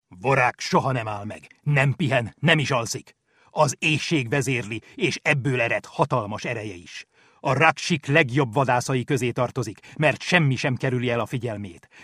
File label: hungarian male; dictor